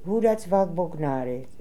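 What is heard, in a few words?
"Hoodazbabognari", pronunciations; Fourth Way; Beelzebub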